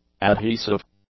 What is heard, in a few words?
"adhesive", English; adjectives